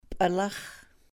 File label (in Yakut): "p'alax̱-", sahaptin